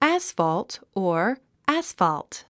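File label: "asphalt", English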